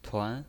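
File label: "tuan", pinyin voice, second tone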